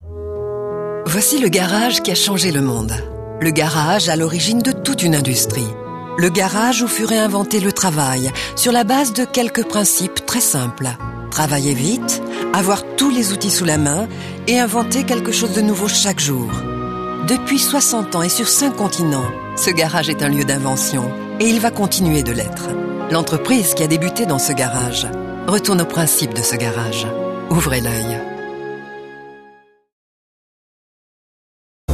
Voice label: pub tv; Hewlett-Packard

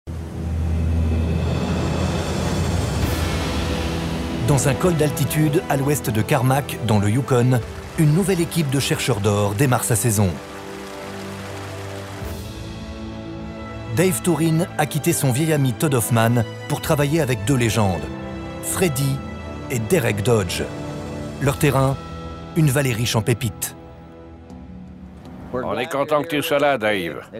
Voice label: narration naturel tonique; Alaska

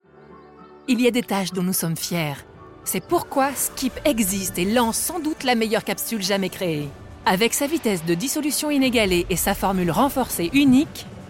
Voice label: Publicite Hygiene; voix-off

